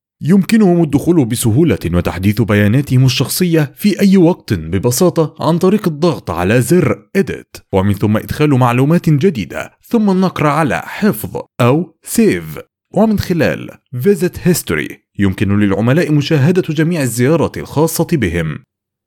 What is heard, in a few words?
Arabic Corporate Narrations